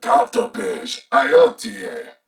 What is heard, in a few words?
Patrol, Corpus Venus Male; Dialog; Barks; Battle; Warframe; CorpusVenusMaleA